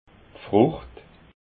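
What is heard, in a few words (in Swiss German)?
"frucht", Alsatian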